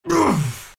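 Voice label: pain, Chinese; dota2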